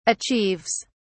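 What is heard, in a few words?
"achieves", British English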